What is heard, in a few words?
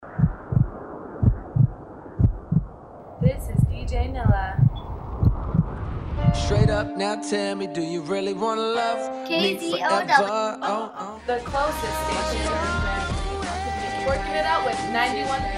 promo